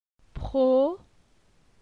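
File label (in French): "Pro", French; phonetique; la syllabe o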